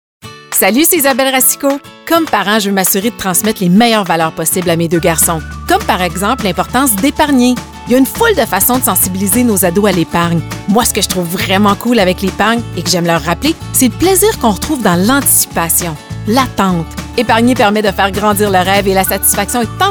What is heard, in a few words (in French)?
Publicite; Desjardins